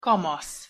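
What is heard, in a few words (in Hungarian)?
"kamasz", Hungarian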